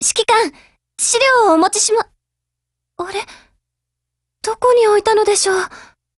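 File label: HELLO Japanese, Voice; GF Alarm; IWS2000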